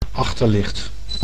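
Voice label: "achterlicht", Dutch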